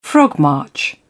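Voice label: "frogmarch", British English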